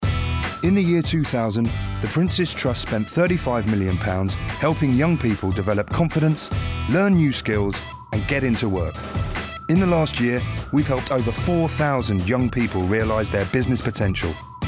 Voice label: Down to Earth, Informative, Engaging; Princes Trust

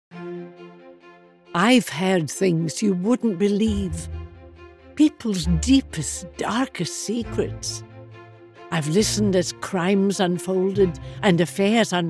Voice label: Scottish, Animated, Characterful, Engaging; Audible

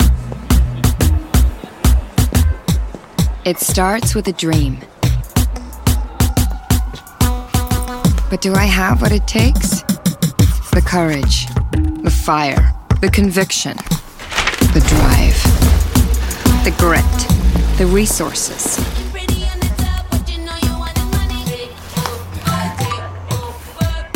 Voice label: US accent; Zilingo Dream

